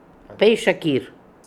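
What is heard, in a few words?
"Paischakir", pronunciations; Fourth Way; Beelzebub pronounciations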